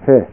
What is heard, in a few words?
"he", Learn Kana